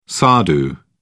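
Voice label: "sadhu", English, British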